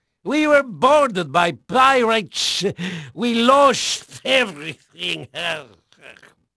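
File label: Portuguese male, voice; Pirates Of The Caribbean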